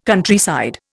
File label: "Countryside", Indian